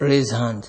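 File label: "raise hand", Nigerian Pidgin, male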